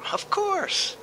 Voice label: of course, guy happy